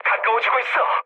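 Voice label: Korean near waypoint mood low; War Thunder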